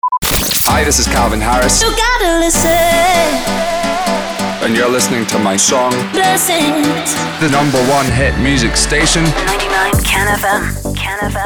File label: SWEEPER, POWER INTRO, 130BPM